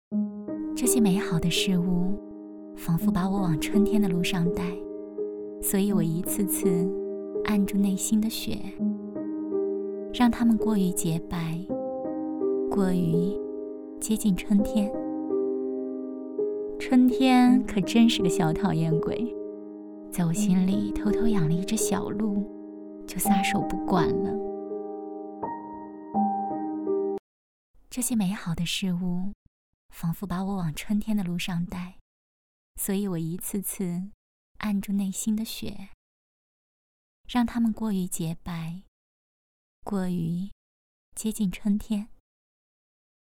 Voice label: 女 独白

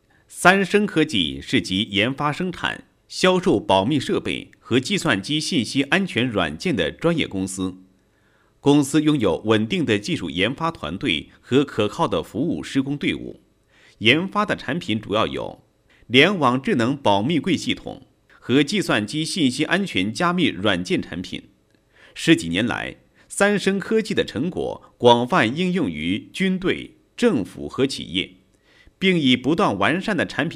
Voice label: 公司解说, 国语男声配音; 高音经济型; 三生科技

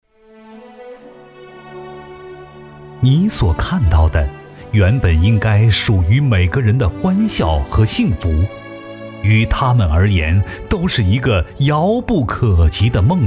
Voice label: 男 医疗专题 共享康复 全民健康

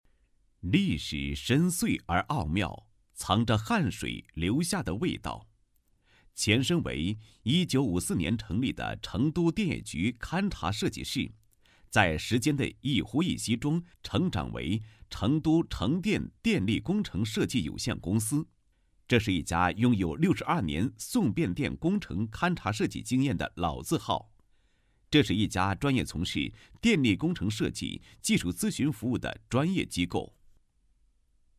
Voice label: 男, 企业宣传; 成都城电电力工程设计有限公司

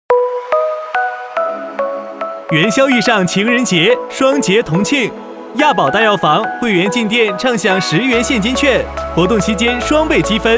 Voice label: 男 促销; 亚宝大药房

